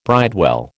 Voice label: "bridewell", American

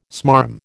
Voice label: "smarm", American

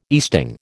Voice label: "easting", American

